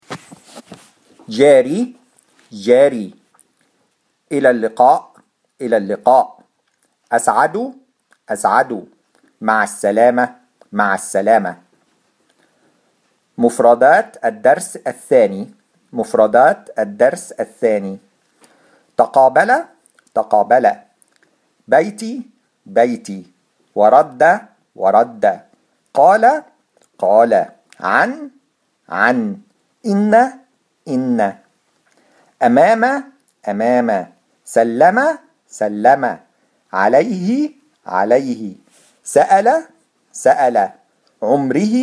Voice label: Pronounce, Arabic